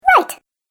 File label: Cartoon Game Voice "Right"